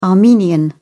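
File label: "armenian", British English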